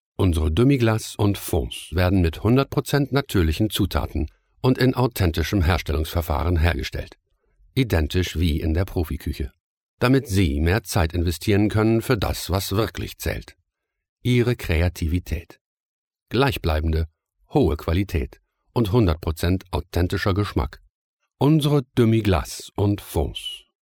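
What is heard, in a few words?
German, male; voice over talent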